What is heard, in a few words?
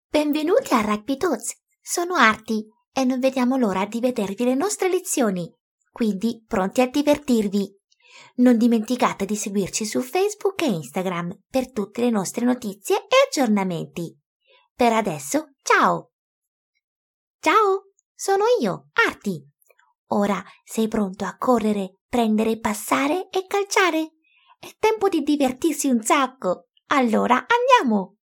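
Italian, Characters; voice demos; GoLocalise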